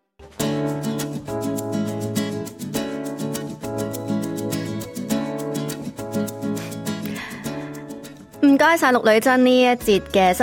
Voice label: cantonese; 【親子同萌】託兒中心接錯幼童 家長接送須知及給業界建議; SBS Chinese

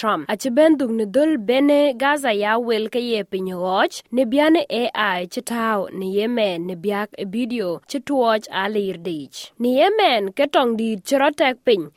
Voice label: dinka; Jam bany Donald Trump ee ke de MIDEAST; Hamas; SBS Dinka

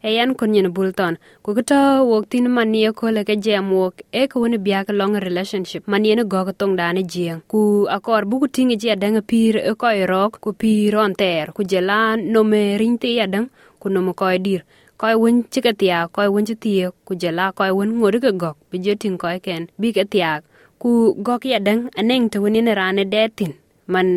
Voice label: dinka; SBS Dinka

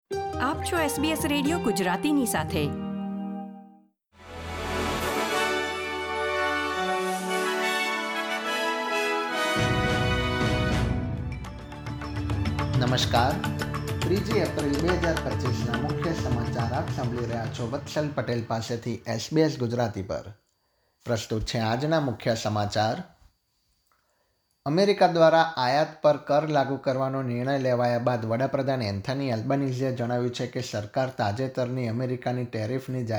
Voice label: gujarati news bulletin; SBS Gujarati Australian update: 3 April 2025; Listen to the latest Australian news from SBS Gujarati

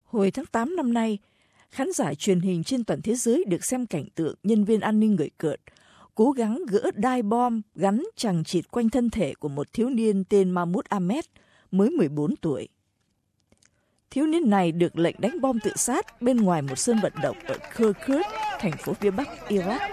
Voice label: vietnamese; Chiến binh "nhí" kể chi tiết IS tẩy não, huấn luyện trẻ em; SBS Vietnamese

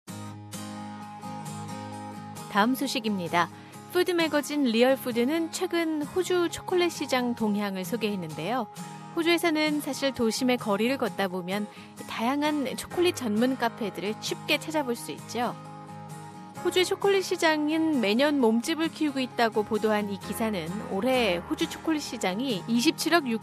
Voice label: korean; SBS Korean; Australian chocolate habits on the rise

